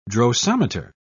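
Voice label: "drosometer", British English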